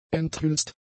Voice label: "enthülst", German; adjectives